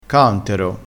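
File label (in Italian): "cantero", dialettale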